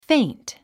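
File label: "faint", English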